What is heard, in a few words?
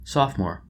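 "sophomore", American English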